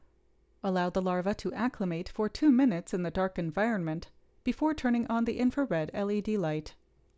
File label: Gong Voiceover